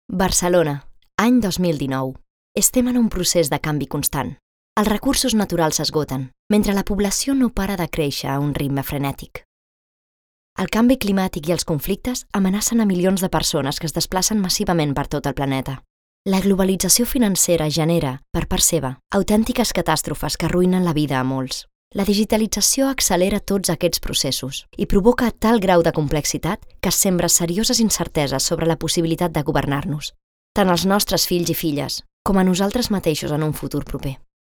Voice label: catalan documentaries